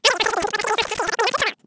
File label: fasttalk, voice